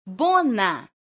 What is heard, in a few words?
"bona", esperanto